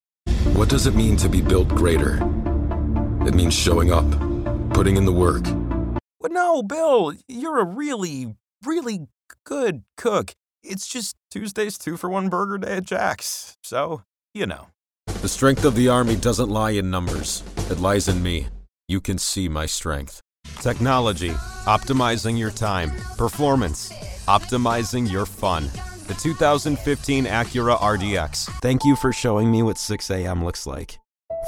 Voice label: Commercial

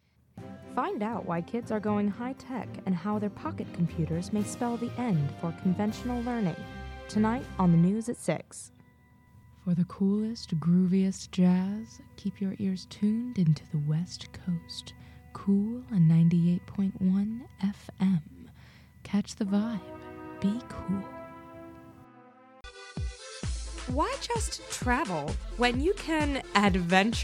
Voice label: Voice Over

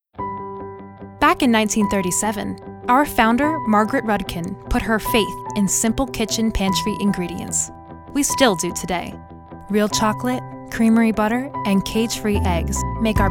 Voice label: voice over

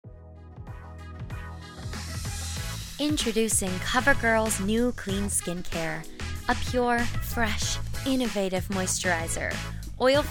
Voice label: V.O.

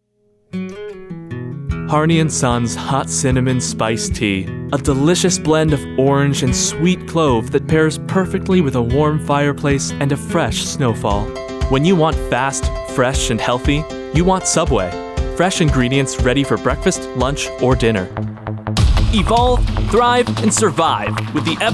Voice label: Commercial

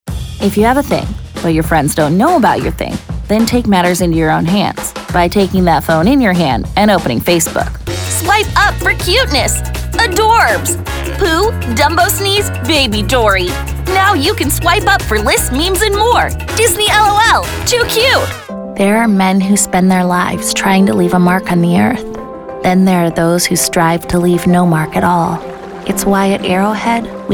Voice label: Commercial

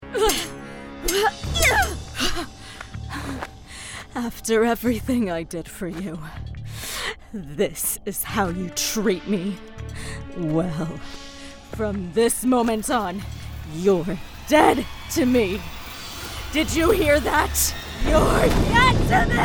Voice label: Character

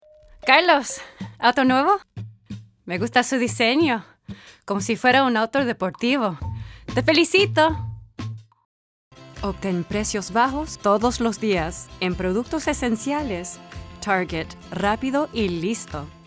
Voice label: Spanish Commercial

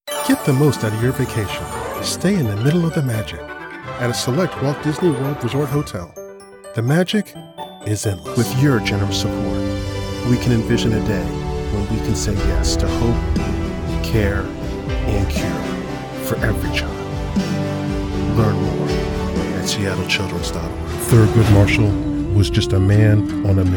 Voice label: commercial

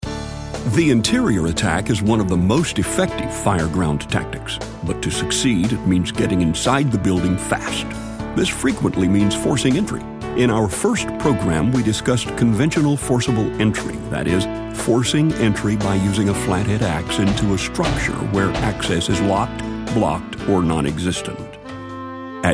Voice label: Narration

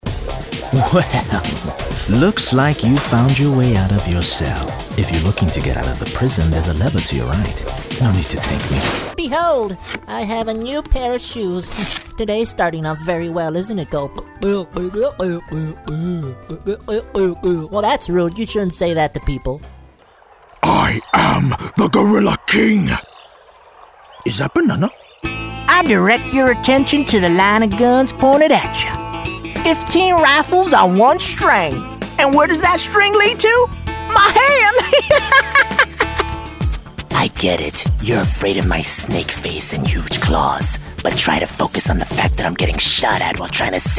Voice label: Animation

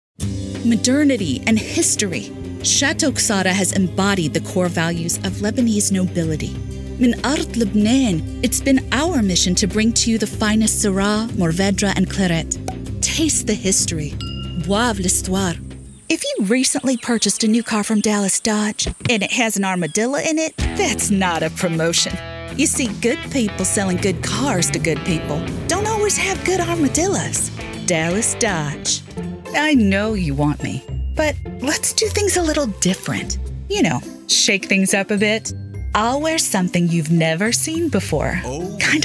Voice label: Short Commercial

